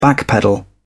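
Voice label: "backpedal", British English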